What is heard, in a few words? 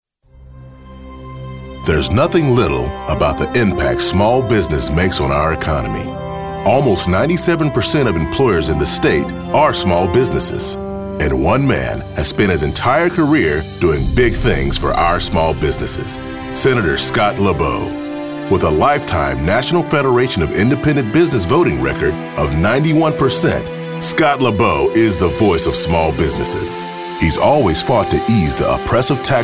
Political Positive